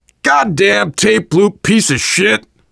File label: world farmhouse, voice, biker